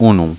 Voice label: "unu" Romanian